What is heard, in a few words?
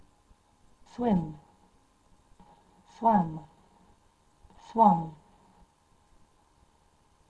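"swim", English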